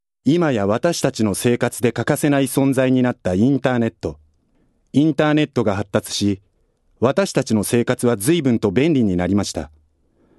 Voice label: male voice

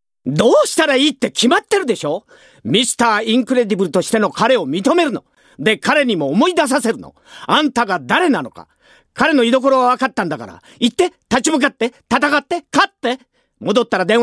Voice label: male voice

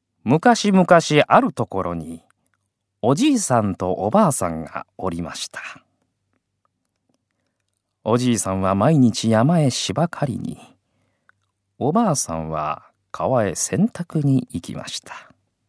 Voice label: male voice

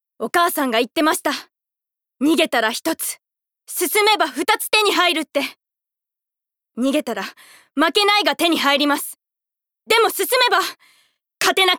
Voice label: female voice; セリフ

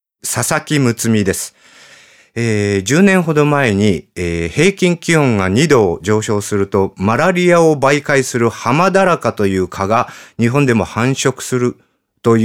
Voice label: freetalk, male voice

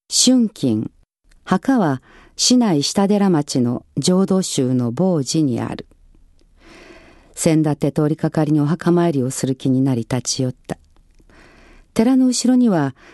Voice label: reading, female voice

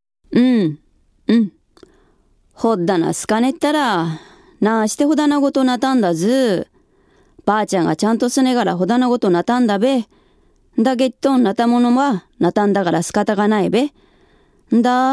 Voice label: hougen, female voice

